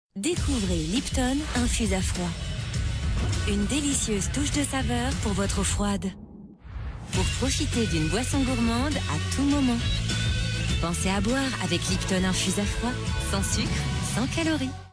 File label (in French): Publicite; LIPTON Infuse a froid